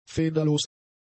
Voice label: "federlos", german; adjectives